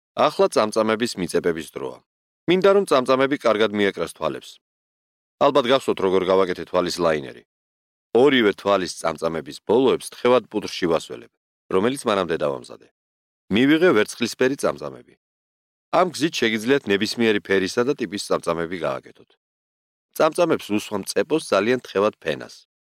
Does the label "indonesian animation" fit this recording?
No